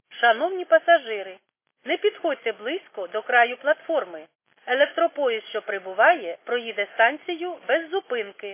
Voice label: khs st female, subway station